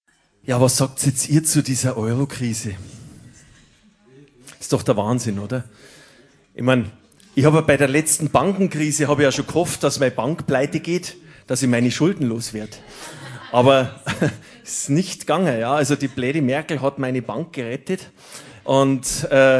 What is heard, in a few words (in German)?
Ansage